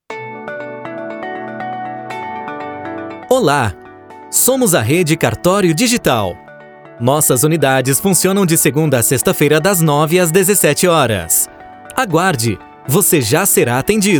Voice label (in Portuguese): ESPERA TELEFONICA